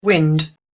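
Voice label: "wind", English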